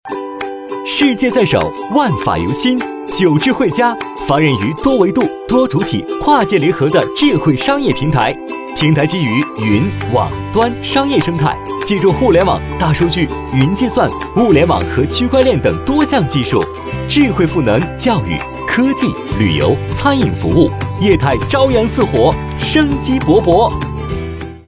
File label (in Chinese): 男 mg; 惠家